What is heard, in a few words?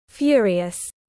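"Furious", British English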